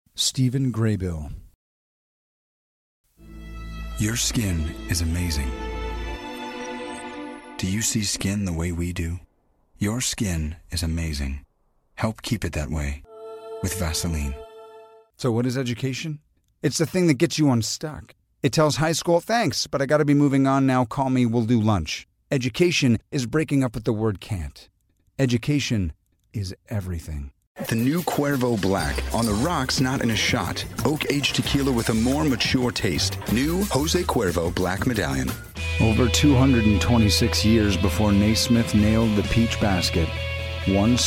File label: commercial; Innovative LA